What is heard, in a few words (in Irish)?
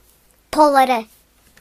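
"nostril", Irish; gcompris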